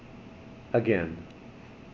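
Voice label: "again", Canadian male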